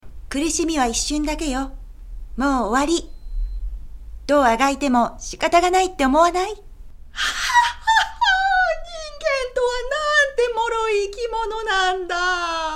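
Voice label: Japanese game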